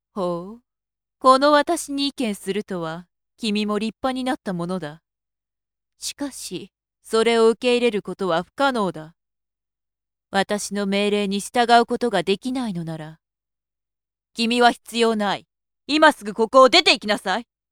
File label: female